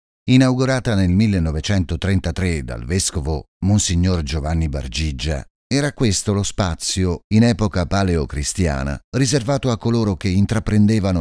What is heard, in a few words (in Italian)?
audioguide italiano; la cappella neogotica